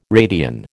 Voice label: "radian", American

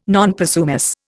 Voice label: "non possumus", Indian

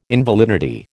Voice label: "invalidity", American

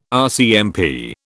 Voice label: "RCMP", British

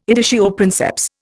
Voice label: "editio princeps", Indian

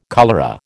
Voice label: "cholera", American

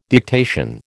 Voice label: "dictation", American

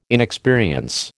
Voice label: "inexperience", American

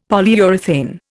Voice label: "Polyurethane", Indian; Dictionary

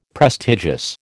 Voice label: "prestigious", American

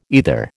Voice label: "either", American

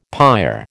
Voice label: "pyre", American

